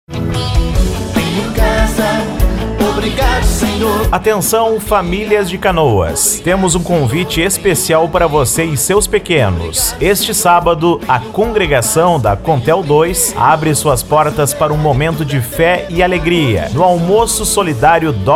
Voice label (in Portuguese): Locutor Igreja Assembleia De Deus; Canoas Norte Congregacao Contel; Canoas RS